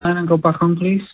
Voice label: call recording